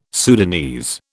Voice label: "Sudanese", British